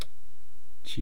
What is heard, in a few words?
"chii", East Cree syllabics